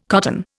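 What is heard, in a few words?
"cotton", Indian English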